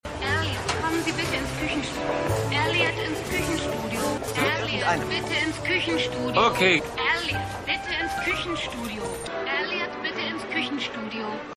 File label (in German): Durchsage